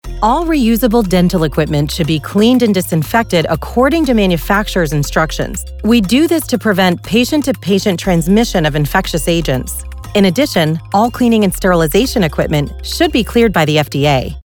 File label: Dental Narration Instrument Reprocessing